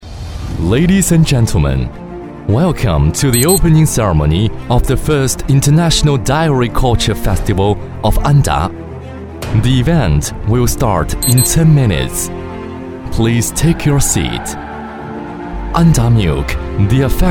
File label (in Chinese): 男 会议开场 英文样音